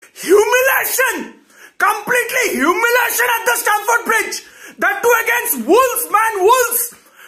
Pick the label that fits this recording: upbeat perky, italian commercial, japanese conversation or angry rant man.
angry rant man